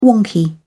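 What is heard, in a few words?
"wonky", British English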